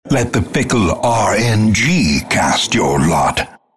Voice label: announcer all random